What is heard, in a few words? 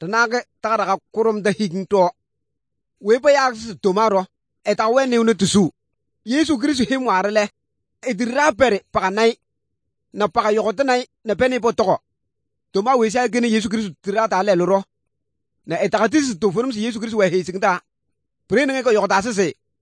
good news, kabiye bible